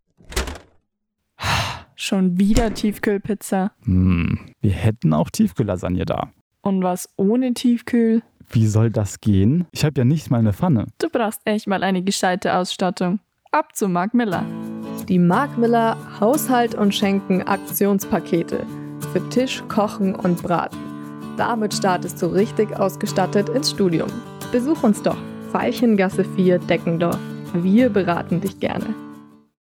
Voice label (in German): Werbung; Markmiller